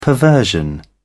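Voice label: "perversion", British English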